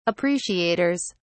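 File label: "appreciators", British English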